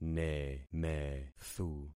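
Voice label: "nemethu", concatenated syllables; Affixing experiment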